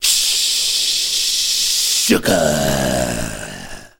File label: "shhhhhhhugah", voice; ooh ahh